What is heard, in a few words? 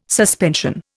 "suspension", Indian